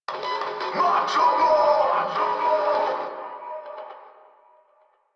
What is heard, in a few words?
Yell From Skiff; en; Warframe; Eidolon; Grineer